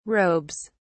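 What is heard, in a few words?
"robes", British English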